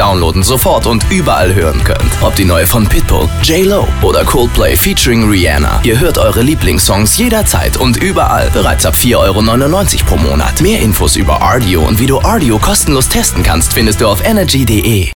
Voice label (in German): werbung, deutsch maenner; sprecher